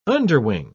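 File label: "underwing", British English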